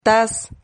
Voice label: "taz", Silabas

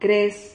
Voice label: "cres", Silabas

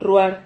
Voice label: "rruar", silabas

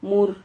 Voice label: "mur", Silabas

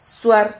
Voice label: "suar", Silabas

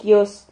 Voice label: "quios", Silabas

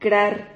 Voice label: "crar", Silabas

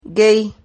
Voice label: "guey", Silabas

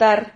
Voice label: "tar", silabas

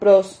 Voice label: "pros", Silabas

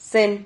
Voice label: "sen", Silabas

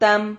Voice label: "tam", silabas

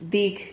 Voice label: "dig", Silabas

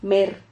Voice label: "mer", Silabas

